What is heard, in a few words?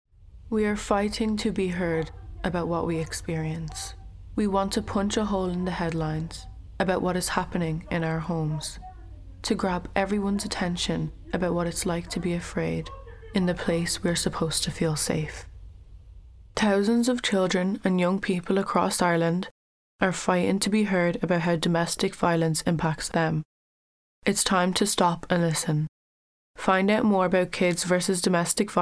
radio; Barnardos